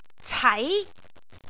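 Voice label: "cai2", Cantonese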